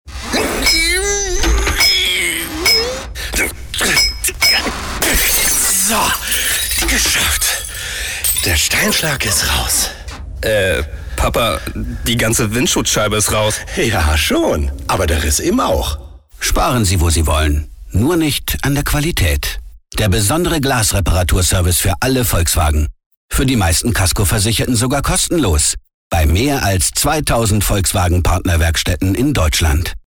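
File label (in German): Charge Werbung Witzig; Fremdtake; VW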